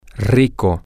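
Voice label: good food, spanish, male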